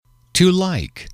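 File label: "to like", English, male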